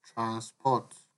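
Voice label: "transport", Nigerian Pidgin, male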